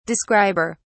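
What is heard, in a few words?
"describer", British English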